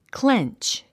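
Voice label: "clench", English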